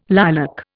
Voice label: "lilac", Indian